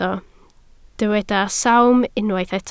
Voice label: "sawm", Welsh